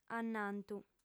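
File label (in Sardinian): "annantu", lingua sarda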